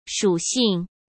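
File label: "属性", Mandarin Chinese; chinese-flashcards; hsk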